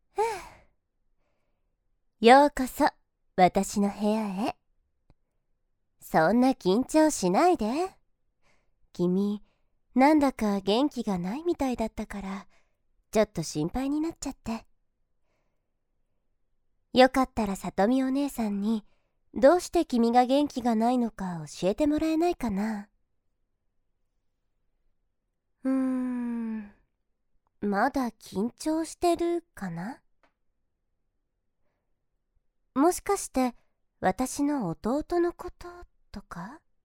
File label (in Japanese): 優しいお姉さん; SEなし